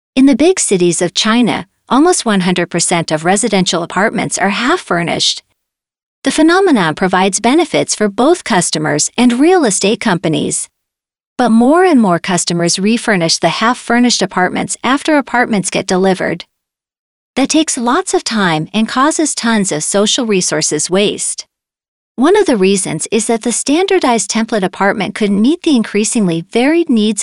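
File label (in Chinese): 外籍女英_外语_美式英语_宣传片_沉稳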